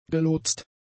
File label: "gelotst", German; adjectives